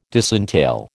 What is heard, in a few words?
"disentail", American